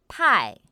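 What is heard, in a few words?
"pài", Chinese; pinyin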